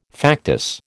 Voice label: "factice", American